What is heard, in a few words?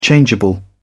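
"changeable", British English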